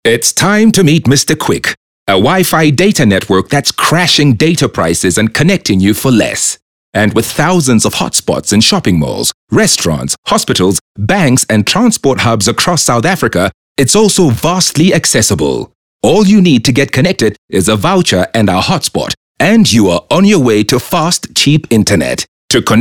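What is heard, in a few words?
English Bright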